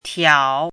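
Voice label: "tiao3" Mandarin Chinese, dipping tone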